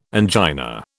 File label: "angina", British